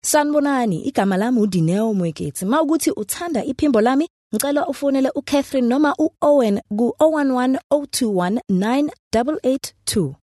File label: Zulu Conversational